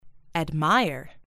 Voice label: "admire", US English